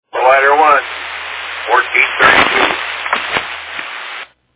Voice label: Fire Dispatch